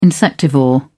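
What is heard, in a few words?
"insectivore", British English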